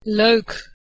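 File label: "leuk", Proto-Indo-European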